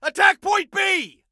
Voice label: Soldier Male ATTACK; Soldier Male 02

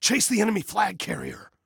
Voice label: Attack Chase, Male; Male 01

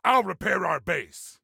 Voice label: Self Repair Base, Soldier Male; Soldier Male 03

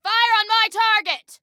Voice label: Target Fire On My, Female

